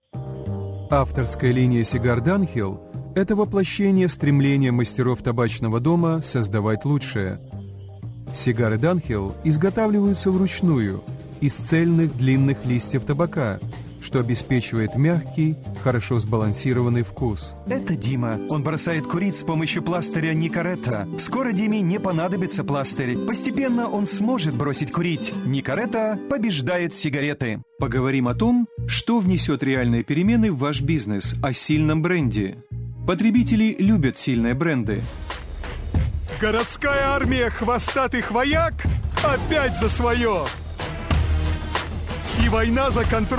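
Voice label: Russian, male, character reel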